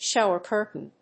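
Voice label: "Shower curtain", English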